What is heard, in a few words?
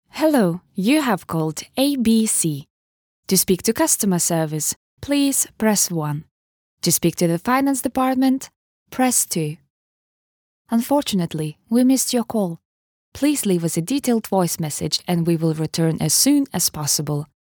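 Lithuanian, female, English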